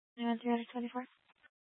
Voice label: Tempe PD, scanner; Rgnl Wireless Co-op (RWC)